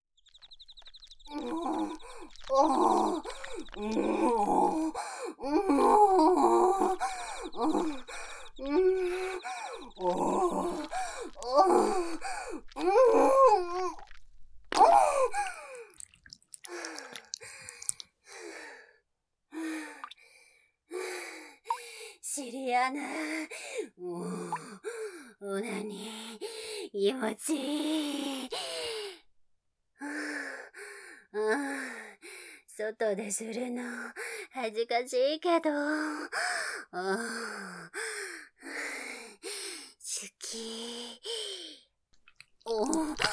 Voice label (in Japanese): 排泄シーン 効果音入り